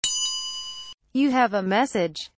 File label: you have a message notification ringtone